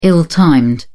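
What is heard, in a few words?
"ill timed", British English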